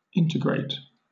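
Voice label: "integrate", British English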